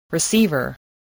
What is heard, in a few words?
"receiver", voice spelling